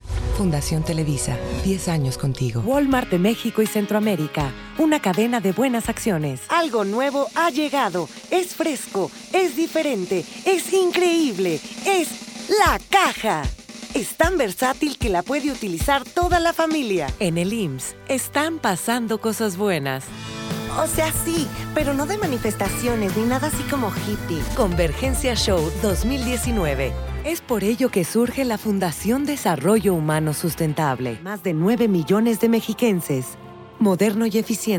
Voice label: spanish latin american commercial

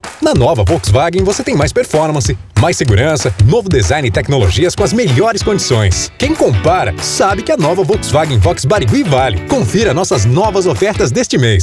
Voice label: portuguese brazilian promos

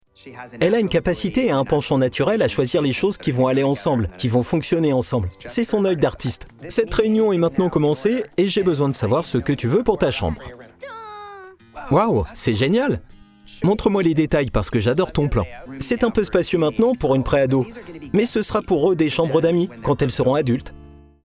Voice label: french narration

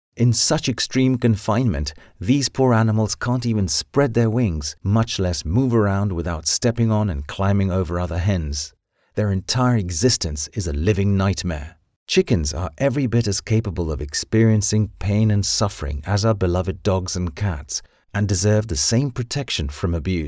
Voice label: english international podcasts

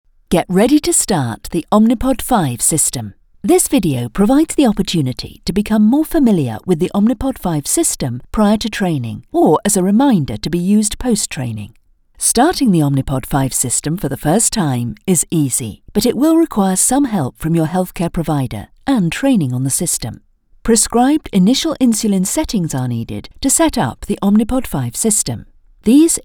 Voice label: english british e-learning

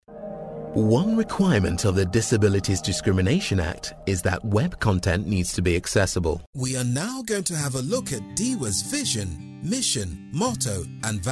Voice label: english british corporate videos

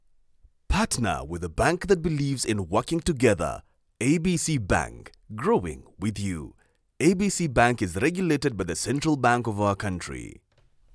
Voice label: english african

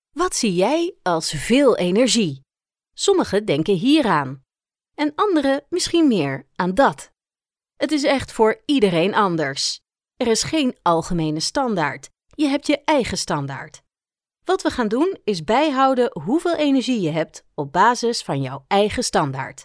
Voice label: dutch narration

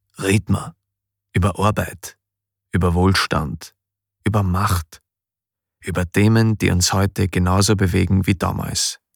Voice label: german austrian commercial

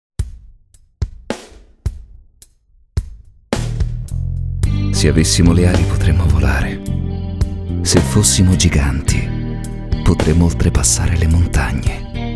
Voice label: italian automotive; professional speaker and dubber

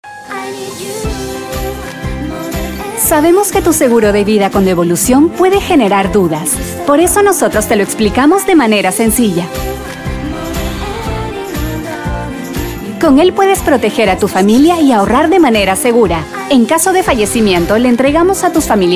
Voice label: Spanish Latin American corporate videos

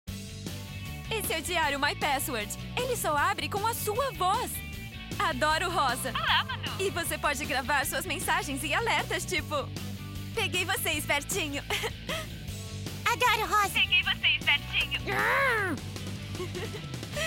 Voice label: Portuguese Brazilian narration

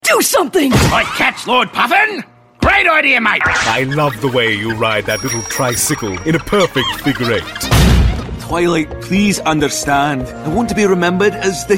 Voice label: english american animation

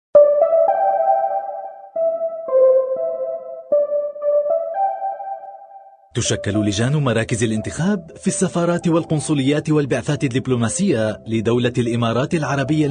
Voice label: arabic e-learning

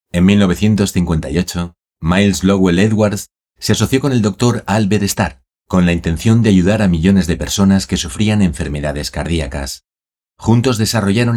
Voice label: spanish narration

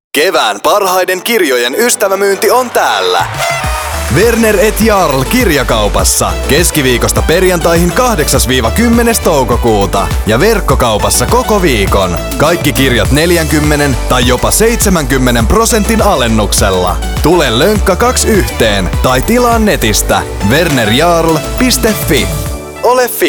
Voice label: finnish commercial

